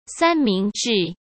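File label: "三明治", Mandarin Chinese; hsk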